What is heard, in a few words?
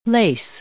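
"lace", English; verb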